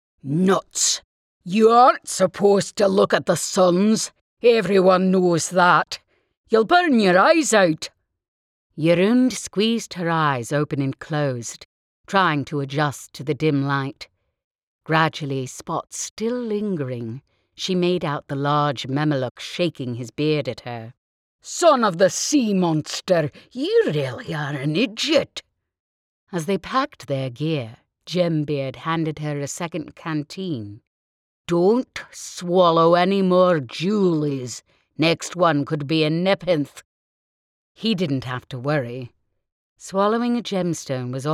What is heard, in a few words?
YA Fantasy British Scottish Dialects